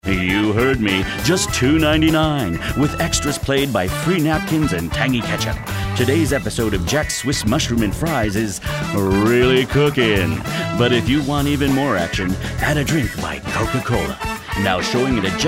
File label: narrative